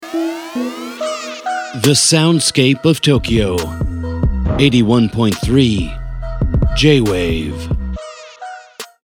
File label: radio